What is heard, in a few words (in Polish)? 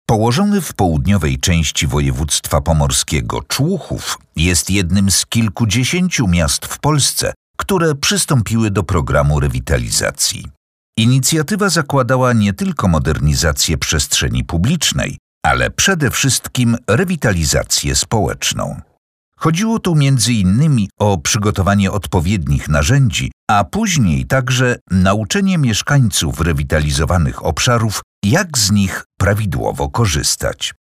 lektor do video